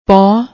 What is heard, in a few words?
"pon", Punjabi